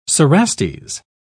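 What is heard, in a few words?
"cerastes", British English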